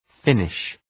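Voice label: "Finnish", English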